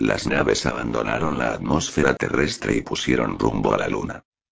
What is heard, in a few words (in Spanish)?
narrativo